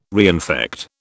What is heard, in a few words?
"reinfect", British